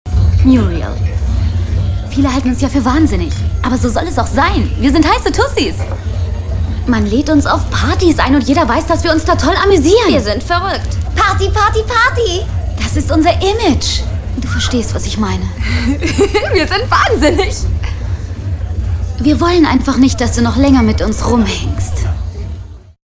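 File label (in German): synchron, sprecher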